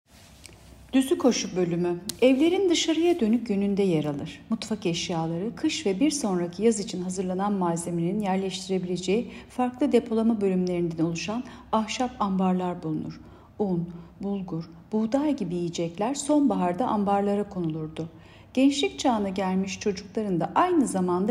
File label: turkce